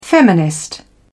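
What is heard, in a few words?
"feminist", British English, dictionary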